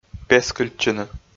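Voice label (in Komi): "пескыльтчыны", Komi, male; audio dict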